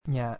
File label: "nya", kana